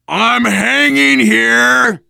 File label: ledge hang start, voice, biker; Left 4 Dead 2; left4dead2 dlc3; survivor